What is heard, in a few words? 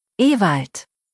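"Ewald", German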